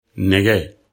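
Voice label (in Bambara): "nege", Bambara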